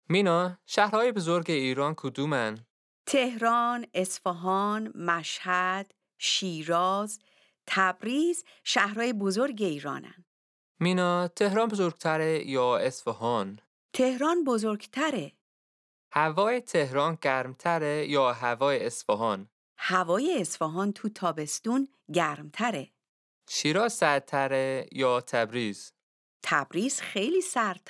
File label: Dialogue lesson Farsi